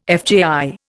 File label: "FJI", Indian